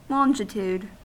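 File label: "longitude", US English; pronunciations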